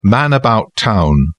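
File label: "man-about-town", English, uk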